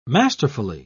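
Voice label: "masterfully", British English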